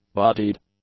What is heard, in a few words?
"bodied", English; adjectives